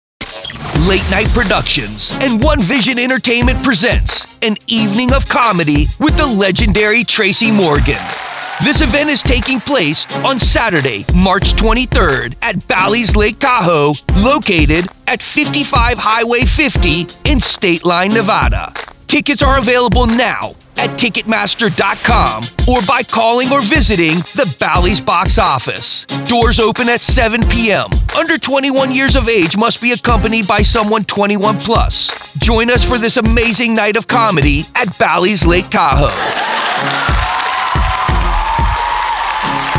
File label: Promo Ad; Tracy Morgan